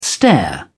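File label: "stair", British English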